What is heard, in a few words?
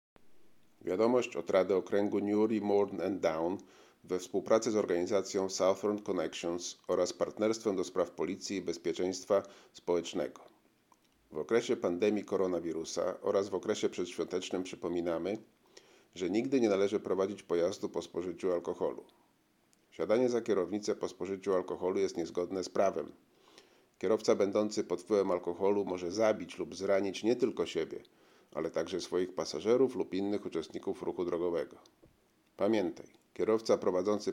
Alcohol Awareness Polish